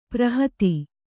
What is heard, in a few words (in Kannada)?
"ಪೌರೋಹಿತ", kannada